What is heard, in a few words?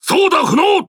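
Japanese Speech Defective Modules Wheel Damaged; World Of Warships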